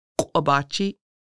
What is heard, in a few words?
knuckle, Lushootseed; q̓ʷəbaciʔ